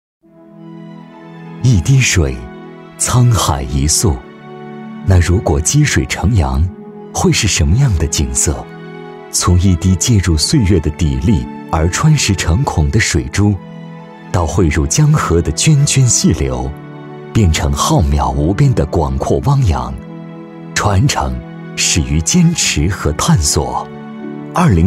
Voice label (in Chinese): 男 企业 洋气 沉稳; 干化学